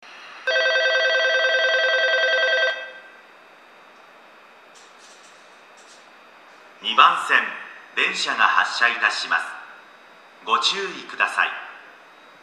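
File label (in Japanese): 駅放送, 2番線 発車; 村上方は冬場であれば静かなので、収録しやすいです。; toyo-katsutadai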